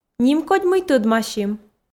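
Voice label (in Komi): нимкодь мый тӧдмасим, Komi, female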